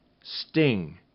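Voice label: "sting", English; RhymeTest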